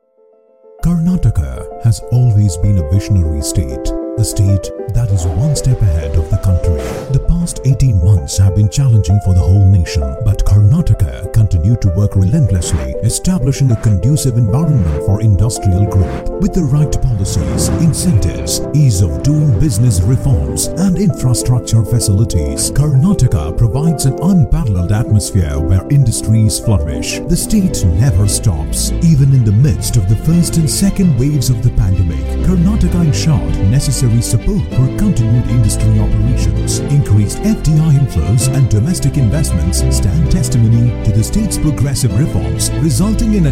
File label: ENGLISH DOCUMENTARY